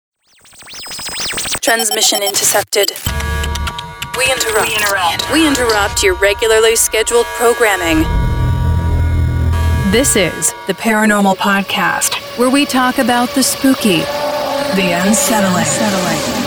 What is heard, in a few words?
english american radio imaging